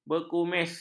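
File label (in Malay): "berkumis", Malay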